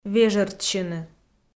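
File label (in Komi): "вежӧртсьыны", Komi, female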